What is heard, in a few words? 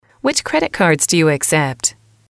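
which credit cards do you accept, female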